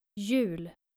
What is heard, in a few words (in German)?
"yul", nordische jungennamen